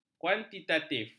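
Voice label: "kuantitatif", Malay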